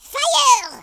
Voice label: "fire", French; speech

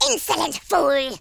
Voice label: stupid, speech, Horror

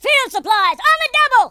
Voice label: drop, Drill Sergeant; speech

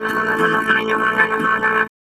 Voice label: angry; Cyberworms

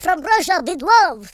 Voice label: fire, Russki

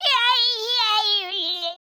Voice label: angry

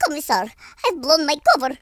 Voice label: oh dear, Russki

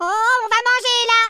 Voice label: incoming, French speech

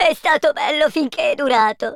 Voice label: bye bye, Italian

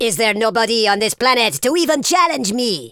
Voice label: victory, speech Super Villain

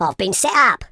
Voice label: "bummer", speech

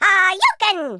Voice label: dragonpunch, German

